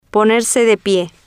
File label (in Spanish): "ponerse de pie", Spanish